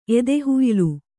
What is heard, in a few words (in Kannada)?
"ಎದೆಹುಯ್ಲು", Kannada